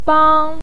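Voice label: "bang1", Mandarin Chinese, first tone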